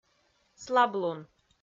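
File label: "слаблун", Komi, female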